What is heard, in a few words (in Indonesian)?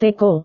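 "teko", Indonesian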